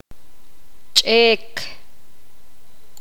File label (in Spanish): poqomam rodilla